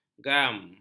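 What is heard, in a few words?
"gam", Malay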